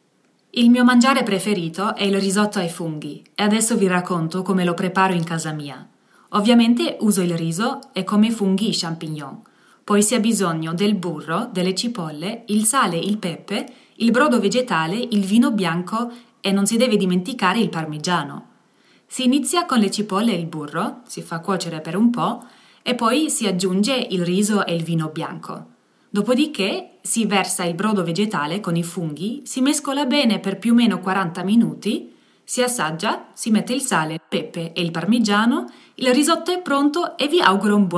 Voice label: Italiensich

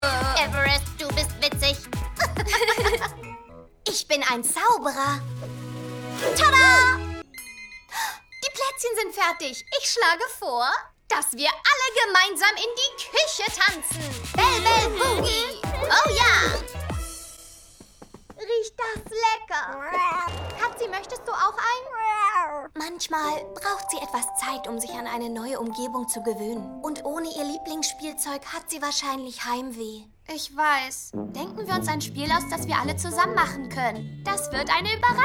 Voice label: fröhlich; Katie in PawPatrol; ANIME

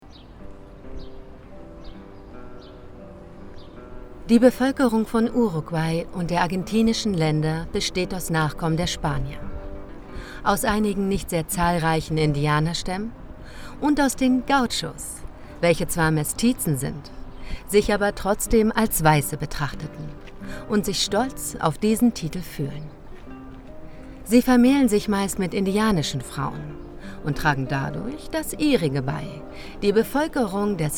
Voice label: Dokumentation Sprecherin; Gauchodokumentation; n24; LOFT VOICES

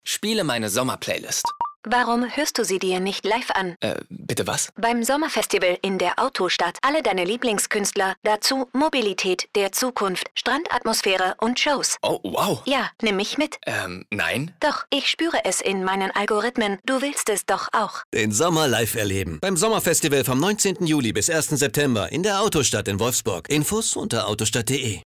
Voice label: Funkspot Live; Autostadt

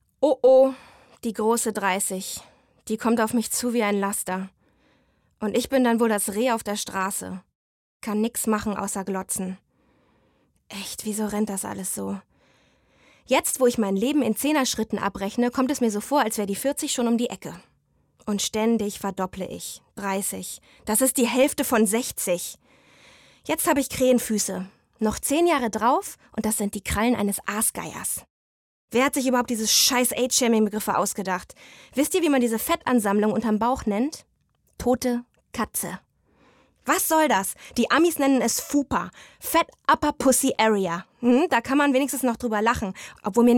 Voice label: Monolog; FUPA

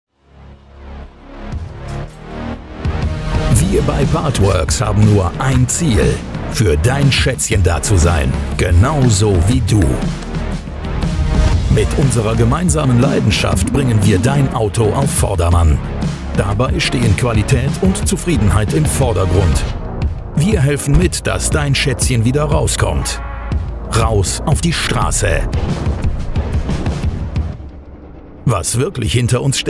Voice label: Imagefilm; Partworks

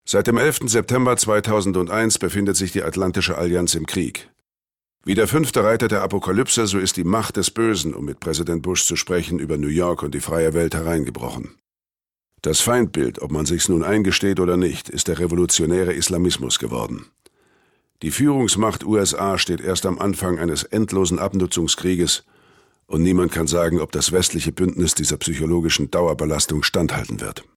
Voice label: kommentar

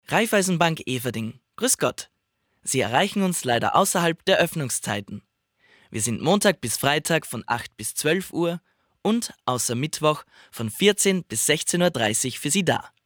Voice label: telefonband

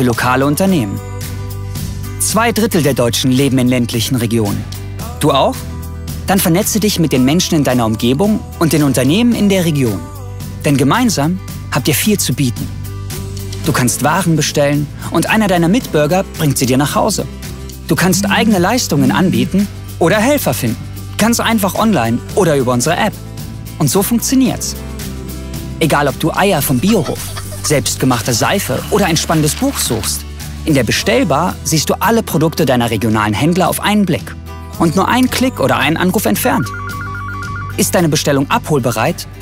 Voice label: imagefilm digitale dörfer

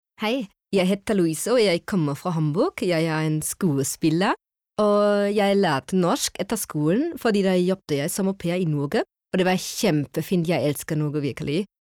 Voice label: Norwegisch; LOFT VOICES

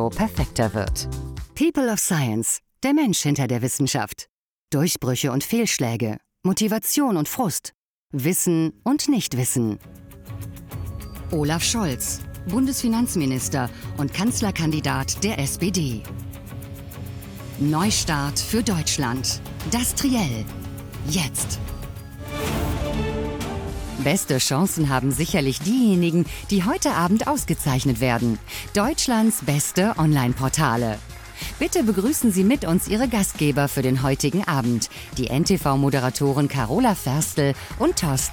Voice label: Trailer Podcast Ansage

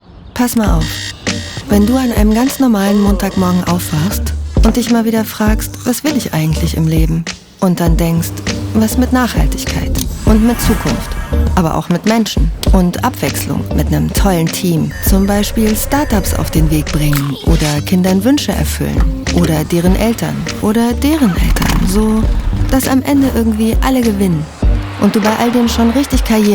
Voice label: Kampagne, Commercial (Werbung), German; Sparkasse "Was willst Du mehr?"

